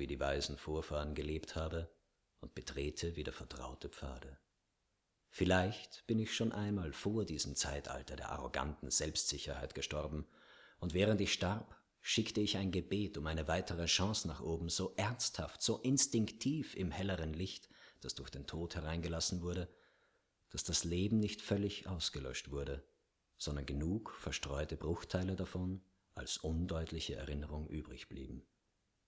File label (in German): Monolog